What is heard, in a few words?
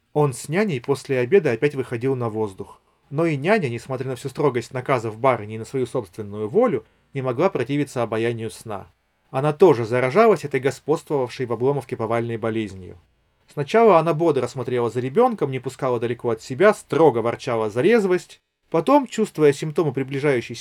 Recorder; nothing headphone a